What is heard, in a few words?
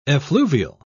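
"effluvial", British English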